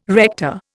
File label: "rector", Indian